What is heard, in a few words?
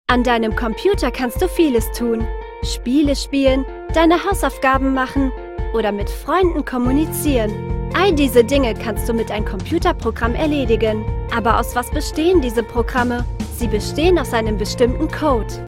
GERMAN